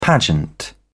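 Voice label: "pageant", British English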